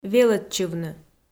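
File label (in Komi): "велӧдчывны", Komi, female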